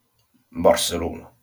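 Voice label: "Barcelona", Occitan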